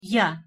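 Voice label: "я", Russian pronunciation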